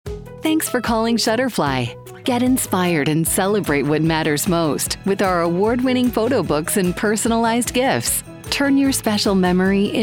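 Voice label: Telephony, female voice actor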